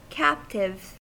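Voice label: "captive" English, American accent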